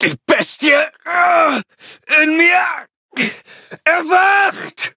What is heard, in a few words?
battle de